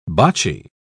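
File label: "boccie", British English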